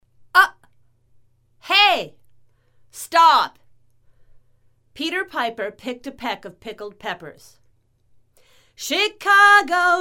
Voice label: Every Other cardioid 3 inches female